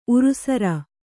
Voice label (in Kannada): "ಉರುಸರ", Kannada